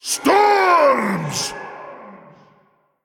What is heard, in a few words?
Male Elemental Emote